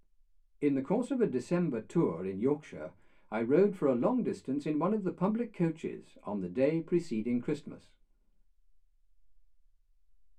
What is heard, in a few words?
male speech english